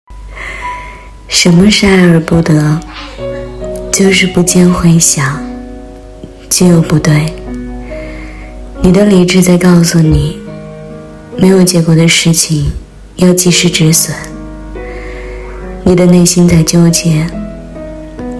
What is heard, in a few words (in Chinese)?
女 情感 素人; 什么是爱而不得